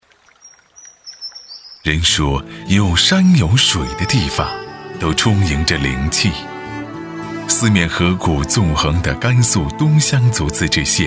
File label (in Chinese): 国语男 专题片 政府 景区 旅游; 河滩镇旅游